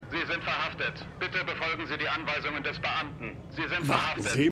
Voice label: Polizei Roboter; Hello Tomorrow

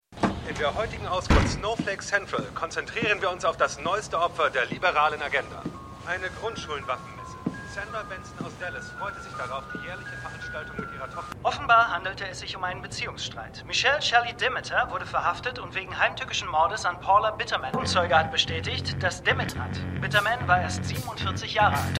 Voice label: Nachrichtensprecher; Shameless

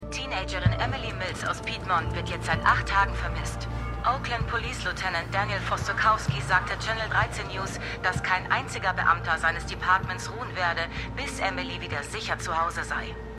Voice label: Reporterin; Susan Laura; Truth Be Told

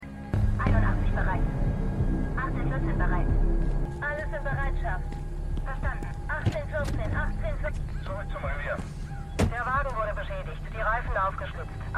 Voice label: Funkstimmen; Manifest

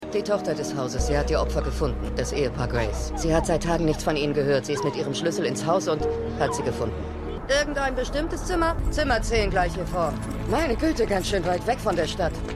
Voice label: Polizistin Motelportierin; CPD

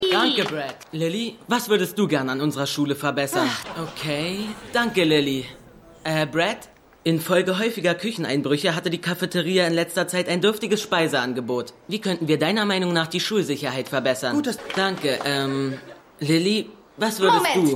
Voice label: Moderierender Junge; Keine Gnade Fuer Dad

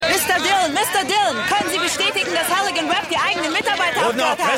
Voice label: Reporterin, Stimmproben

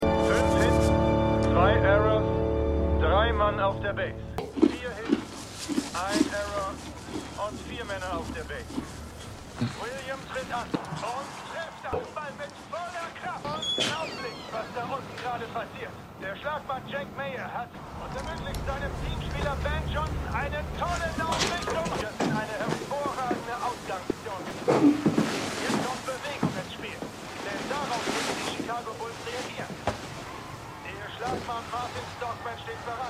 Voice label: Sportkommentator; Stimmproben; Fargo

